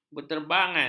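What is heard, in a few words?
"berterbangan", Malay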